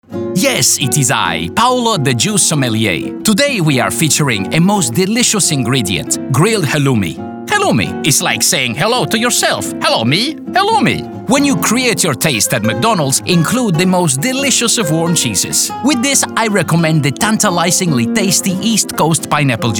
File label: Portuguese Accent voice over; Voice Overs Commercials; McDonalds